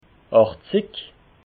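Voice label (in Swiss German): "achtzig", Alsatian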